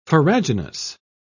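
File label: "farraginous", British English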